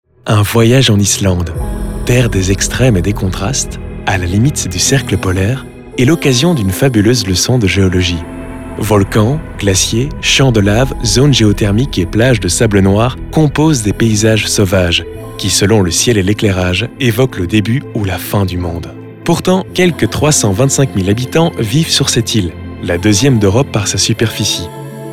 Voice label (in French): Narration infocommercial voyage Islande